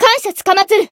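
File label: healed, Japanese; azami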